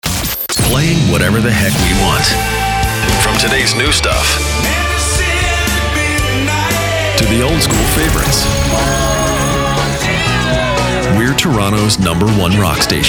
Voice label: Radio Imaging